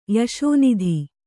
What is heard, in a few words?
"ಯಶೋನಿಧಿ", kannada